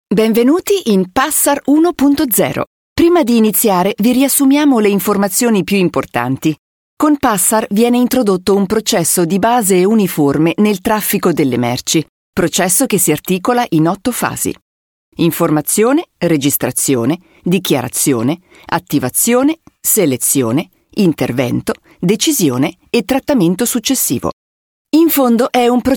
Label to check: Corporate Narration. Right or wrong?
Wrong